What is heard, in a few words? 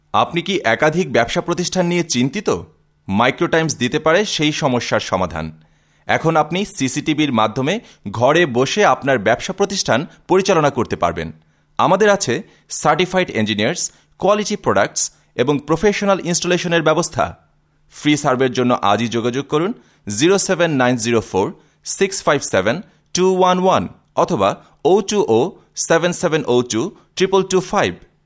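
male; Bengali, Male, 20s-30s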